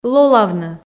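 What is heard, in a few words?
"лолавны", Komi, female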